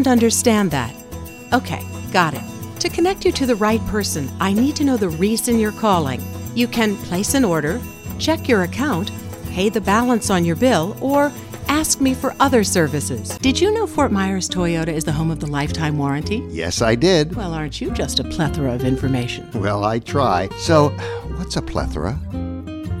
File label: phone IVR on hold